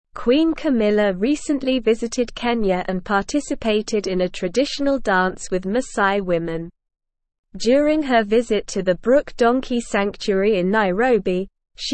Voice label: English Newsroom Advanced SLOW Reading; Queen Camillas Kenya Visit Cultural Engagement and Wildlife